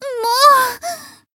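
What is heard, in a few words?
Voice damaged Japanese; hoozuki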